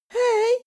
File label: boy shy "hey"